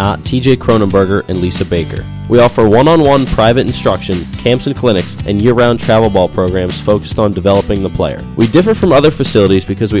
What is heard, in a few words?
baseball commerical